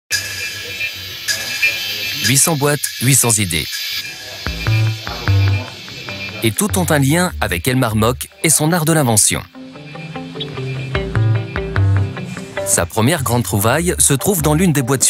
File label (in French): Documentaire, Voix off; La swatch